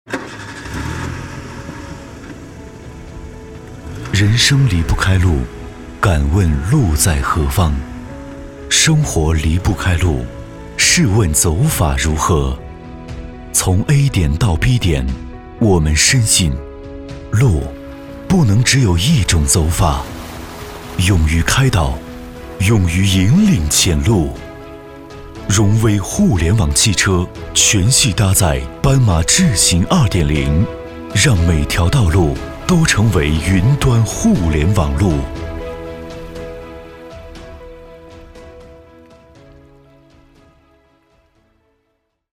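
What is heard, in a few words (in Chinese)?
广告汽车干音; 荣威汽车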